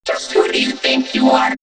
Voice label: Vocoder Just Who Do, robot vox; X-Static Goldmine